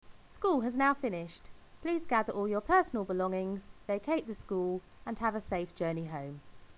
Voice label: School is finished female voice